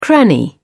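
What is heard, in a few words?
"cranny", English, British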